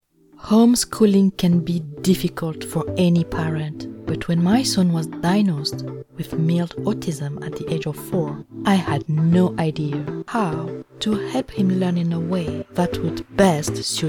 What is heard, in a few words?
Elearning Nurturing; Elearning Sunflower